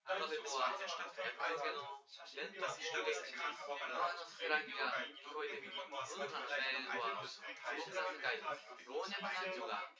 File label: male synthconv